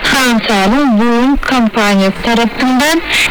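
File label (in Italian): "franca", italiano; Franca, non volè campane, sta raccontà!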